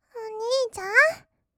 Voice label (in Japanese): "おにいちゃん？", kawaii voice; 1200 kawaii voice!!; 二人称